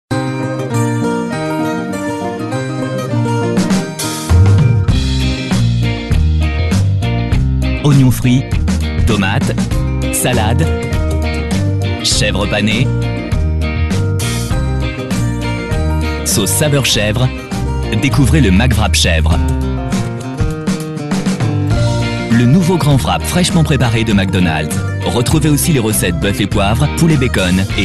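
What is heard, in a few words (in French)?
jeune impertinent, comédien voix off; McDonald's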